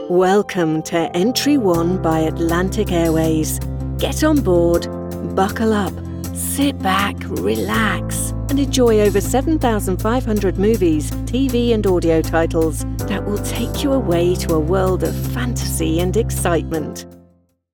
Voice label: In Flight Entertainment Luxury, Female British Voiceover; Corporate